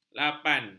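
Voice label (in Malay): "lapan", Malay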